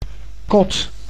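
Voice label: "kot", Dutch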